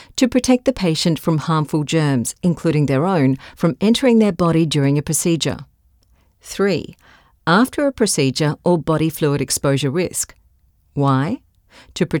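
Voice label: E Learning Health Safety Training, female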